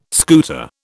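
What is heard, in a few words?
"scooter", British English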